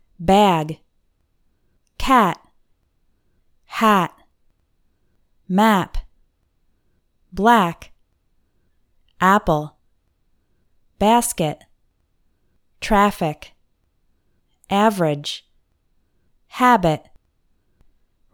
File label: words with short A sound; long and short vowels